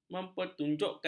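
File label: "mempertunjukkan", Malay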